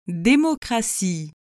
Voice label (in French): "démocratie", French